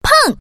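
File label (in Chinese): "peng", female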